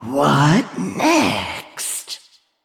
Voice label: Female Val'kyr Thinking